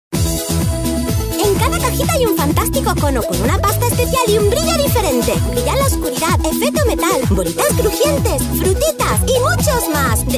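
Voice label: Spanish female TV commercial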